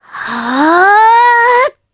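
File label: Vox Casting, Japanese; Mirianne; kingsraid; voices heroes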